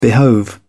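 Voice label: "behove", British English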